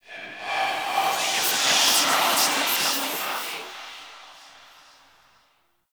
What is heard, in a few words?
Whisper; Enemies; Stalker Acolyte